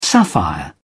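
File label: "sapphire", British English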